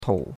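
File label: "tou3", Mandarin Chinese; Cours-de-Chinois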